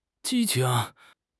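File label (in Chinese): "激情", Chinese; HSK6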